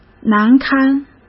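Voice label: "难堪", Mandarin Chinese; hsk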